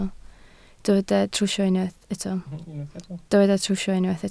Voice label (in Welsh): "trwsio" Welsh